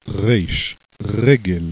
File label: RESH, hebalpha